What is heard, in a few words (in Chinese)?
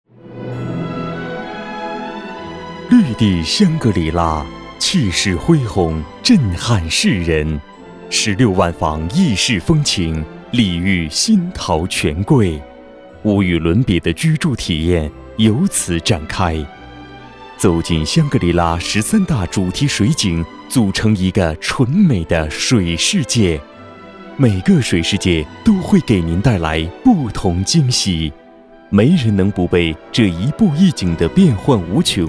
深沉营销; 绿地地产